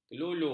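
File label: "kelulu", Malay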